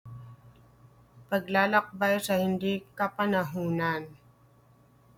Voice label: Tagalog